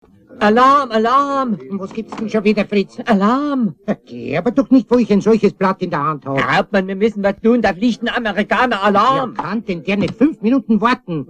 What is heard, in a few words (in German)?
spruch alarm; sprueche; etappenschweine